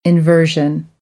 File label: "inversion", American; English; ɝː - ɜː